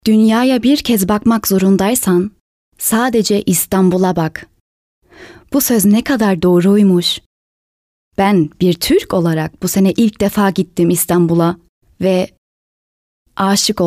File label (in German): Turkische Horprobe